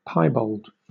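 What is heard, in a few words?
"piebald", British English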